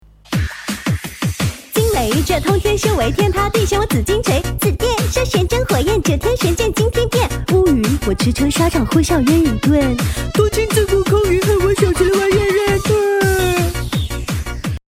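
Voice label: jingle vo sample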